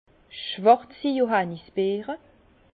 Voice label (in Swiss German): "schwarzi", Bas Rhin; vin; bourgeon de cassis